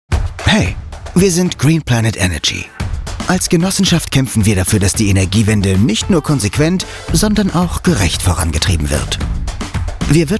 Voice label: sprachprobe werbung off energiewende

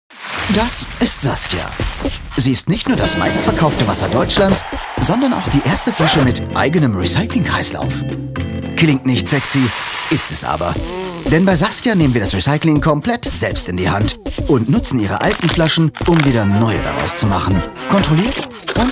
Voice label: sprachprobe off produktfilm

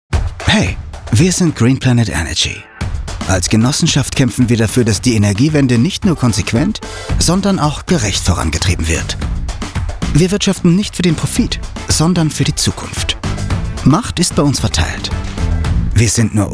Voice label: sprachprobe werbung off energiewende OESTERREICH

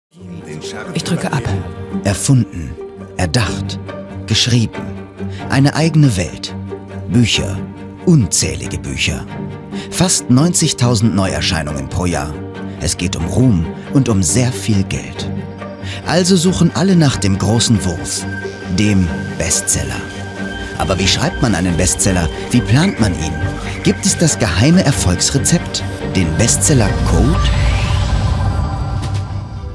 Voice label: sprachprobe kommentar doku; bestseller

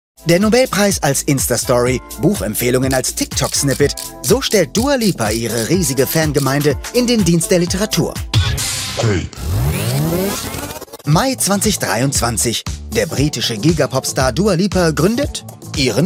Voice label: Off Stimme; Off arte Pop-Magazin - Tape

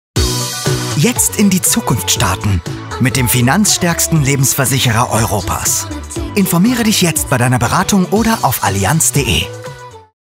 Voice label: sprachprobe werbung off; allianz europa

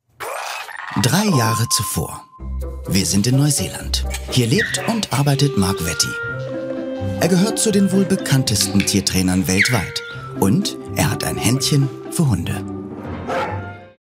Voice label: sprachprobe kommentar reportage; vierbeiner

